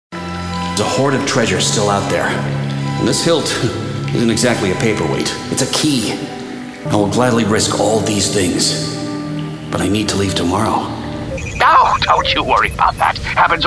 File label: Animation